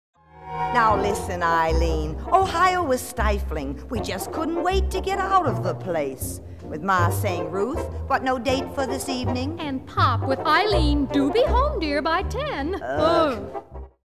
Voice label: chatter; ohio; glee